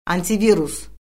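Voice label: "antivirus", Azerbaijani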